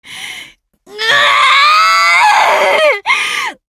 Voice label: frustrated; Beatrice